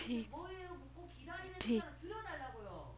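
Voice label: "pick", spoken word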